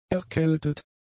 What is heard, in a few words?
"erkältet", German; adjectives